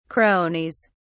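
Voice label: "cronies", British English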